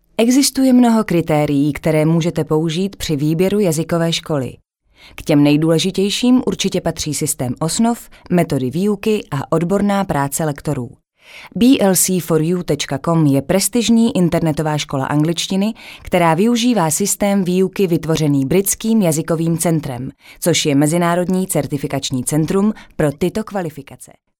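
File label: Czech, female, e-learning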